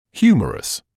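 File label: "humerus", British English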